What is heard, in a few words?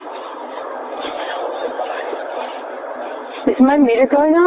two male whispering